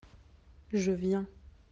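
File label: "venir", French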